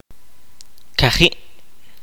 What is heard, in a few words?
"four", kaqchikel